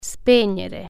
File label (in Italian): "turn off", Italian